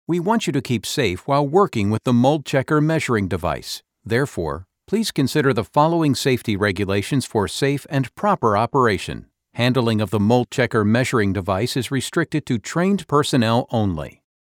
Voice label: eLearning Mature Compliance Methodical